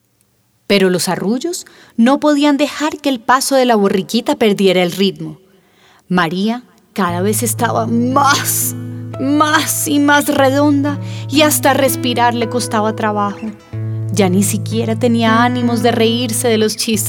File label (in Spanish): Narración; ¡Tun Tun ¿Quién es